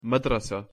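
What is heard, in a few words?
"madrasa", Arabic; taa marbuuTa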